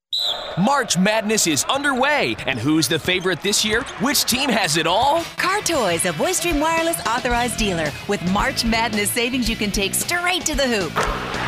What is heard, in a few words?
Radio